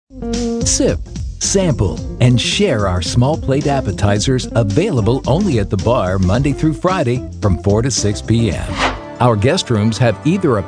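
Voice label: male On-Hold Messages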